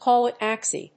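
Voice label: "call a taxi", English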